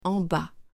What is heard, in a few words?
"EN BAS", French; directions; there is directions